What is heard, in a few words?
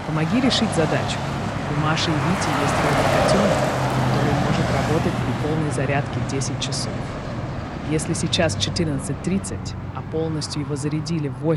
speech car noise